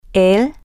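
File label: him, spanish, female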